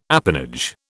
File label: "apanage", British